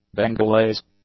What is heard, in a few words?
"Bengalese", English; adjectives